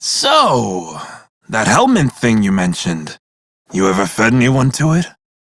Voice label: crew idle, male; Dialog; en; Warframe; Railjack Crew; Red Veil